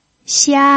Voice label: "xia1", pinyin